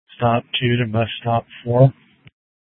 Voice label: Sheriff, scanner P25; Shrf Lakes